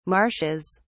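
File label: "marshes", British English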